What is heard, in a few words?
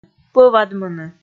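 "пӧвадмыны", Komi, female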